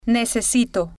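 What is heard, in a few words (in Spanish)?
"necesito", Spanish, female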